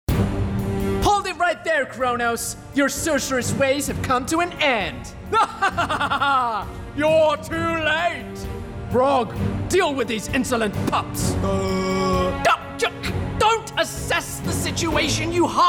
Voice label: Character